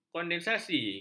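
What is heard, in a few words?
"kondensasi", Malay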